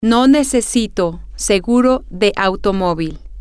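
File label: i do not need insurance, spanish, female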